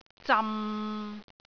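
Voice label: "zam6", Cantonese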